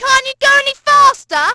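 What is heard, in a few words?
"faster", British, Positive; Splashdown; XBOX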